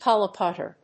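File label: "collocutor", English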